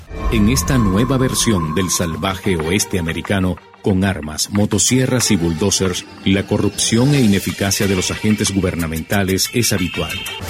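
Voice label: Spanish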